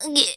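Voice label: Voice damaged Japanese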